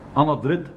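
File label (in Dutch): "Annadriet", dialect; Chemisch opvulmiddel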